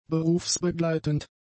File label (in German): "berufsbegleitend", German; adjectives